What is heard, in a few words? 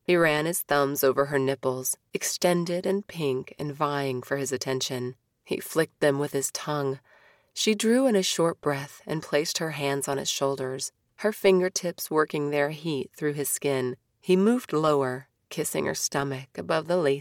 Romance, Audiobooks